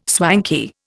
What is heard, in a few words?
"swanky", Indian